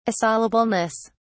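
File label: "assailableness", British English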